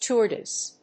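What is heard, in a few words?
"Turdus", English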